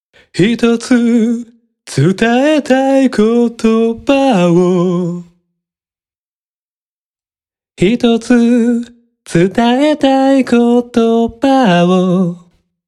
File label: voice commentary